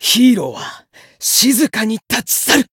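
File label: Voice Japanese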